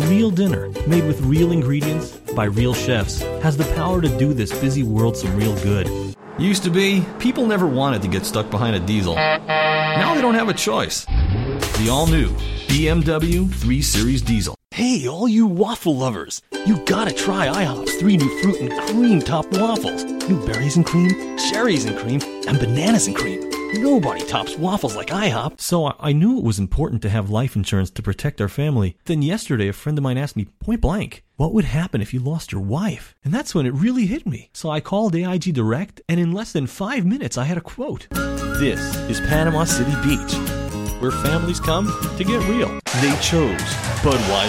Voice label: English US M Commercials, american; voice over